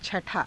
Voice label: "cha_thaa", Hindi